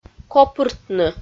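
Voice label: "копыртны", Komi, female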